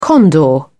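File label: "condor", British English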